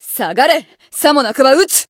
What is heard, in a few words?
Voice encount jp